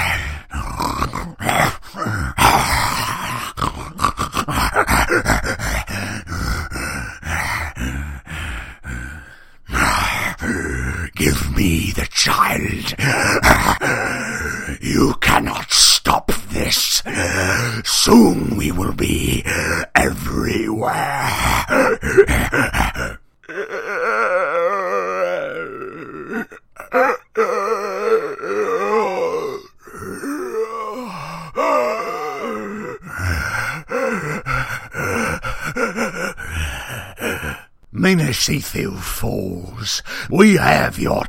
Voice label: animation men creature

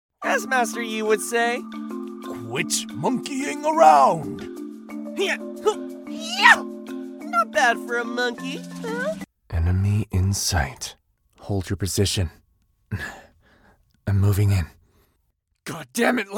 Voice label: animation men interactive, voices men

